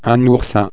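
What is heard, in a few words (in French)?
"oursin", French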